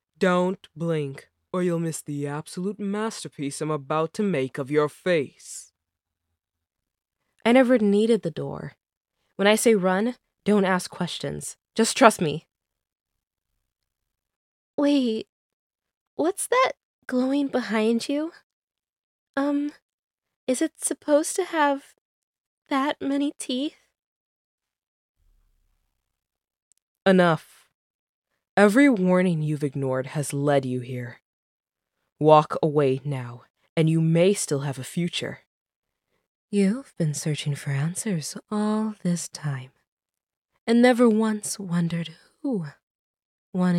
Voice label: Character